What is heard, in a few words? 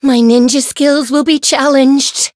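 Voice encounter fear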